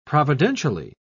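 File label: "providentially", British English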